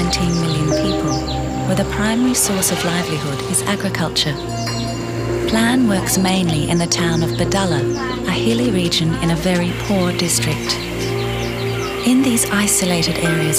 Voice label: corporate; EM Voices

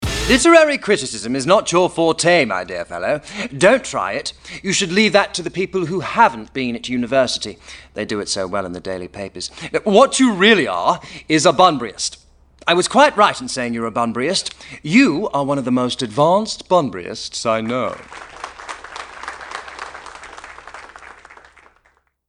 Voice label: Pompous, voices

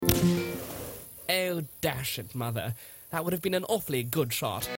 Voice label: British Voice

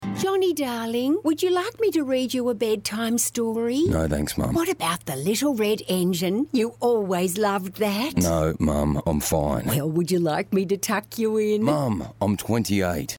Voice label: bedtime story

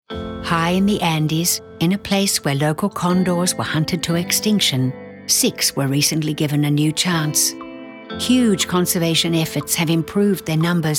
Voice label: corporate voice over

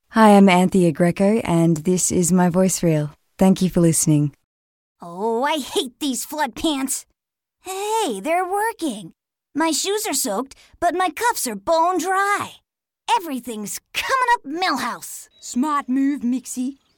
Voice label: Character

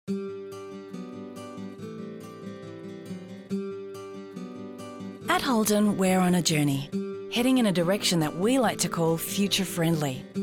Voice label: phone messages; EM Voices

